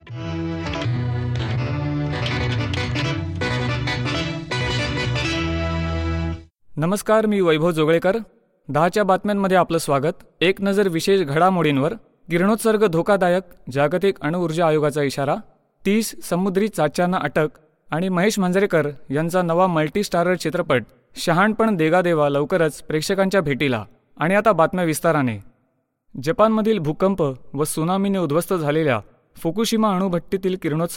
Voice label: news, Marathi